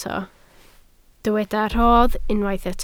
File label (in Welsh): "rodd", Welsh